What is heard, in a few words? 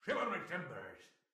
beat pirate voice; goldentavern